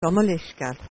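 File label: sorry, Scottish Gaelic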